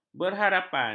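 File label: "berharap", Malay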